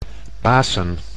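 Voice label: "pasen", Dutch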